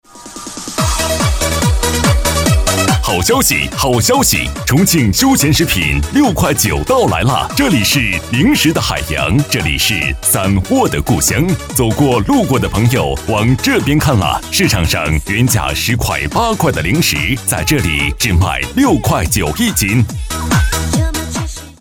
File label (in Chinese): 促销 街头